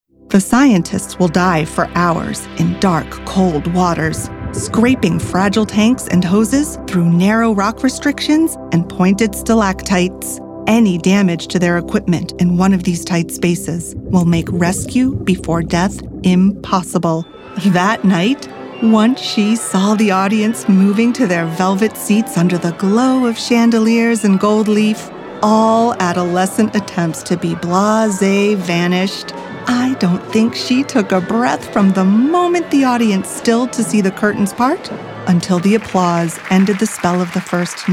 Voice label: Narrative Demo